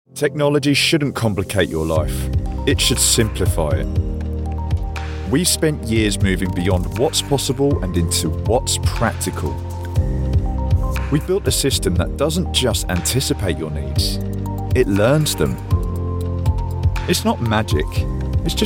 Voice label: London male corporate